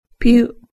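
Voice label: "pyu", Japanese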